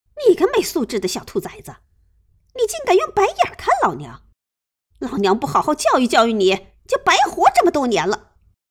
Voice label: 中年音

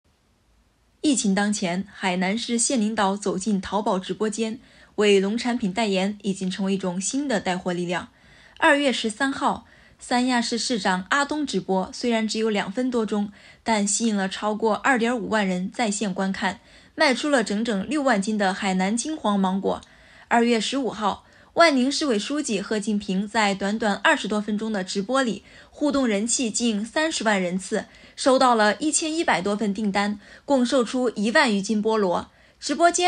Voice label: 播音

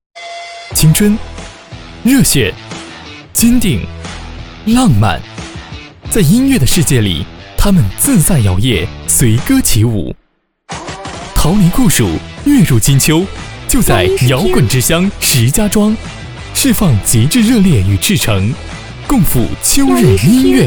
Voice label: 年轻动感; 音乐派对

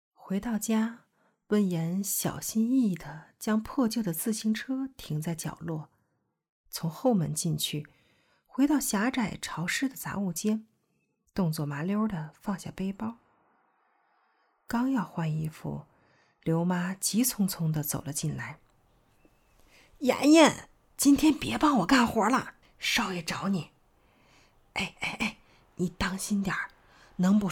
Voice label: 小说演播

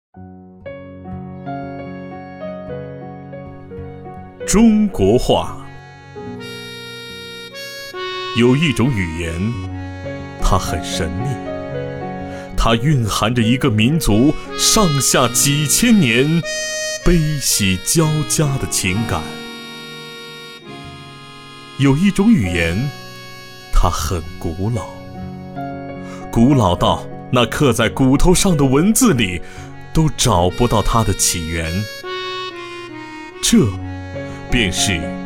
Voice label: 朗诵中国话范读

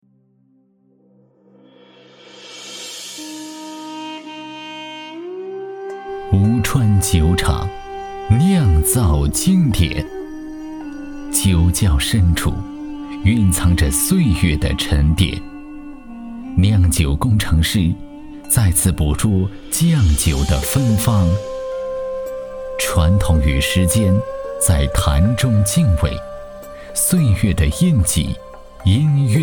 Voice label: 专题解说 娓娓道来 广告 男; 酒业

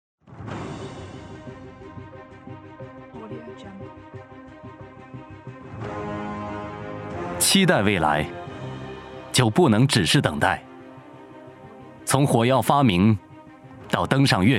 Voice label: 专题 激情 大气; 期待未来

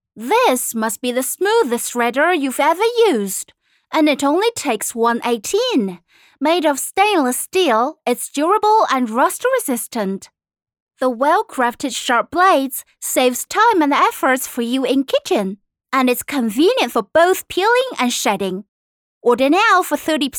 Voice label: 英 促销广告

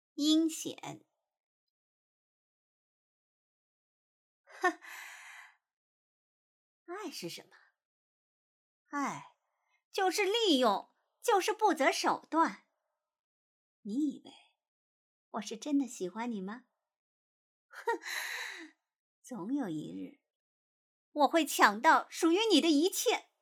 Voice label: 阴险

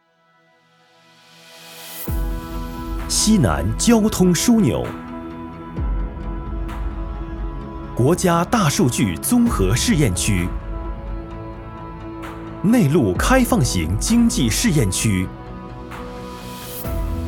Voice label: 城市宣传片

